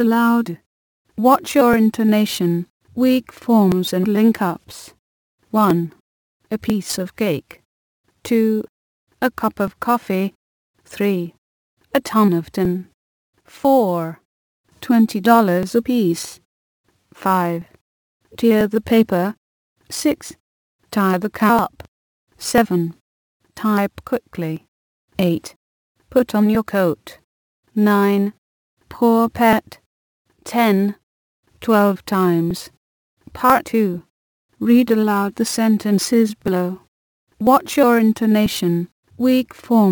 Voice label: Aspiration in the pronunciation of p,t,k (BrE)